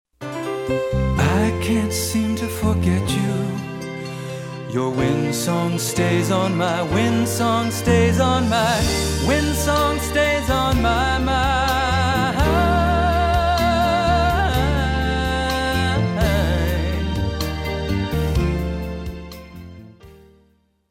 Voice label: Wind Song Perfume Commercial